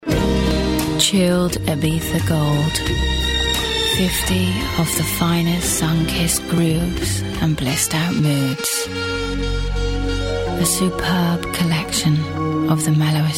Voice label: Commercial; Chilled ibiza; Vocal Point